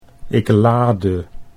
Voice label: "ik laadde", Dutch

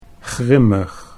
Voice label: "grimmig", Dutch

